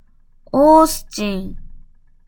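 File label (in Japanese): "オースチン", Japanese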